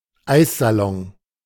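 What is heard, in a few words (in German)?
"Eissalon", German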